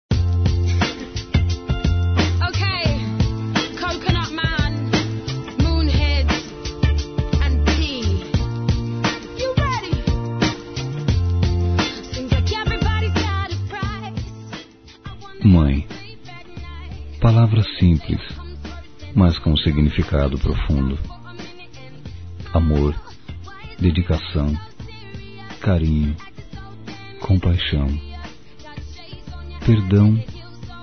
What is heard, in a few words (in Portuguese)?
Dia das Mães Neutro Masculino, Telemensagens; Telemensagens 2017